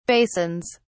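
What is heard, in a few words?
"basins", British English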